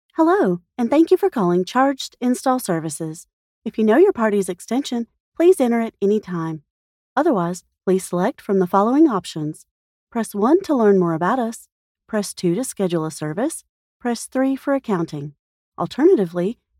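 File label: American English, IVR General; GoLocalise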